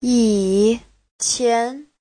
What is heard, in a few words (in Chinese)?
"yi3qian2", Chinese